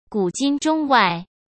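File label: "古今中外", Mandarin; chinese-flashcards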